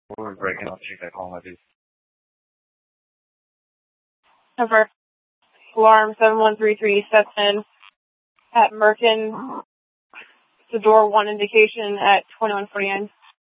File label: Police South Dispatch